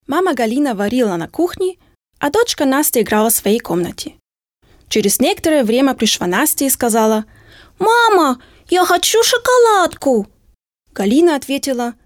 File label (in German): russische Erzählung